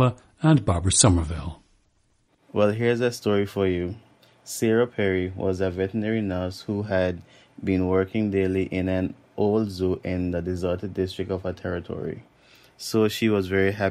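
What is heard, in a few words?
anguilla, dialects of English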